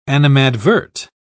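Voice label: "animadvert", British English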